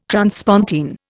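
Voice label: "transpontine", Indian English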